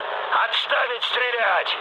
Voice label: player friendly fire, mood low, speech ru; War Thunder

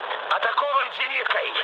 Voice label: damaged aa, mood low, speech ru; War Thunder

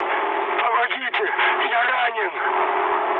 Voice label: damaged pilot, mood med, speech ru; War Thunder

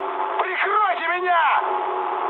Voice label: aircraft damaged, mood high, speech ru; War Thunder

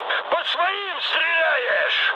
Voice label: player friendly fire, mood high, speech ru; War Thunder

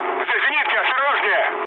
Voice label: damaged aa, mood low, speech ru; War Thunder

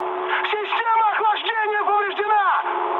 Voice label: damaged water, mood high, speech ru; War Thunder

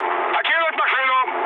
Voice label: bailout, mood low, speech ru; War Thunder

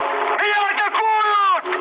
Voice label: aircraft damaged, mood high, speech ru

